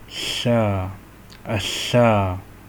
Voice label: Voiceless alveolar lateral fricative